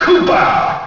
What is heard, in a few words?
announcer; koopa; ssbm